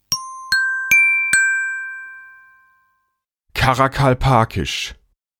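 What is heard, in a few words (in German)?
"Karakalpakisch", German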